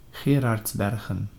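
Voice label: "Geraardsbergen", Dutch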